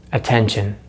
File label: "attention", English; Consonants in American English; easypronunciation